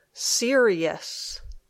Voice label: "serious", English